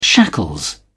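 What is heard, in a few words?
"shackles", British English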